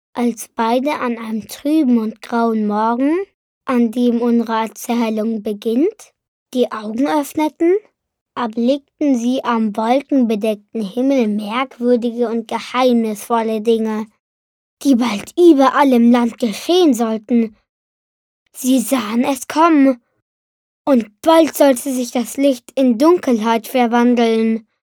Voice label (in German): jungen; Licht in Dunkelheit